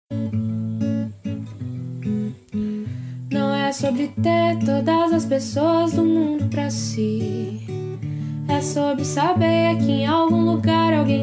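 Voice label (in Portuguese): Dia das Maes para Avo feminino, telemensagem; Dia das Maes para Avo FEM B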